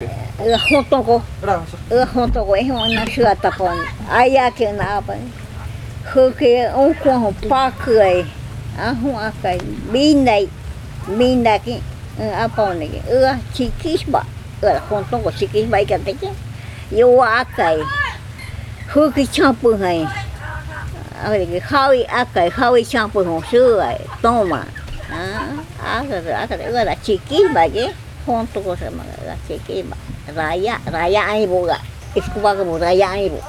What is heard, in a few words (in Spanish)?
Narración Muchacha